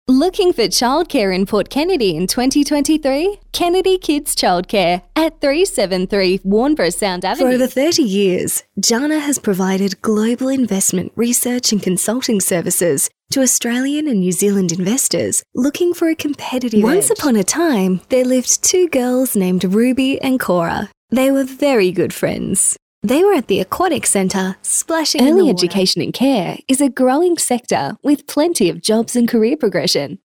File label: Soft Sell